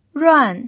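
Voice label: "ruan" Mandarin Chinese, fourth tone, pinyin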